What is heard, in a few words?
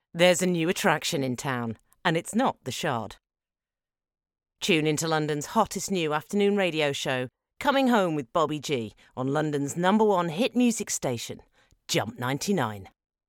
radio TV promo vo